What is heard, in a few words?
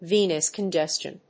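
"Venous Congestion", English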